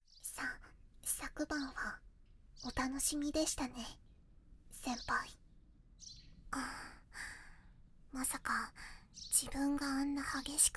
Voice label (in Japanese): 朝チュンピロートーク